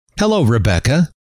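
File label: "hello", male; trainer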